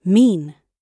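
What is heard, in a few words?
"mean", British English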